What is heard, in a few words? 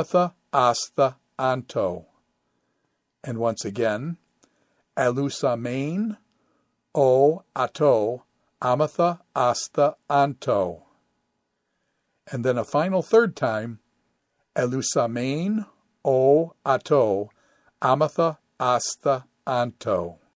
Quick Chant First Aorist Middle Ind luw